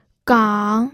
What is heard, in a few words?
"gang3", pinyin audio